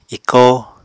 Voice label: "ikóo", Apatani

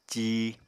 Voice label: "cí", Apatani

